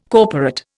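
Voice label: "corporate", Indian English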